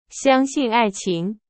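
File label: Chinese, example sentence; hsk2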